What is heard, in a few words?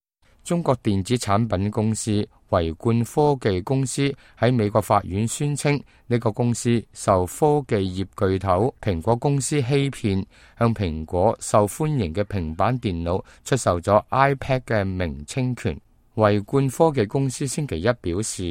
cantonese, news